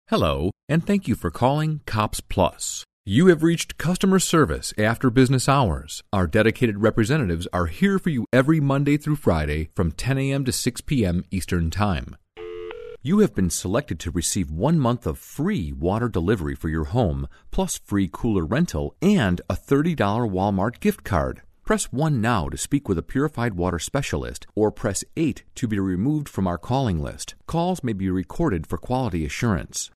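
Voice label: English, American, male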